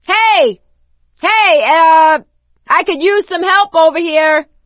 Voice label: call for rescue, survivor voice